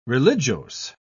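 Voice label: "religiose", British English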